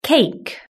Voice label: "cake", british english

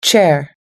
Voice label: "chair", us english

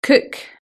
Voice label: "cook", british english

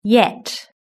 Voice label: "yet", british english

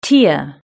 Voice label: "tear", british english

